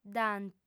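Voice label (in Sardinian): "dd'ant", female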